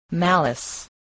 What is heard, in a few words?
"malice", spelling voice